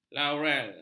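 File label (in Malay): "laurel", Malay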